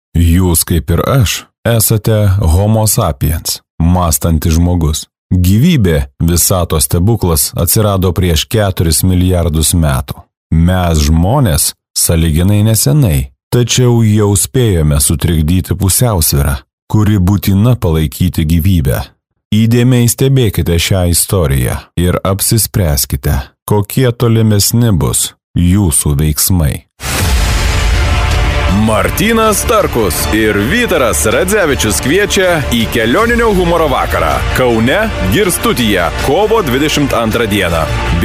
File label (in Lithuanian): lietuviu balsai, vyras